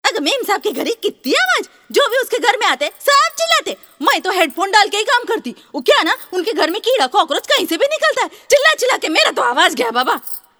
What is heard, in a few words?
maid hindi